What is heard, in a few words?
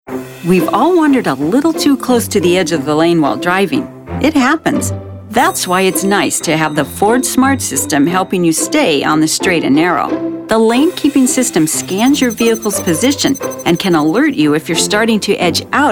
Corporate Narration